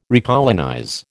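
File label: "recolonize", American English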